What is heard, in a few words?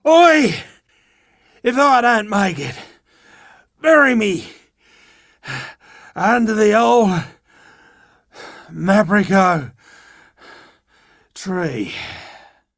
Downed, en, Dialog Barks Battle; Warframe; Chipper